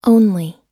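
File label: "only", English; oxford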